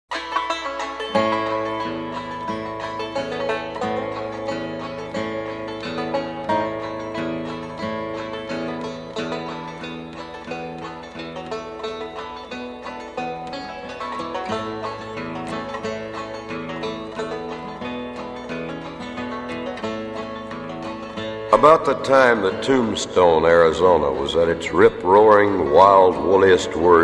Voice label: Narration